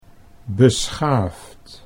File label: "beschaafd", Dutch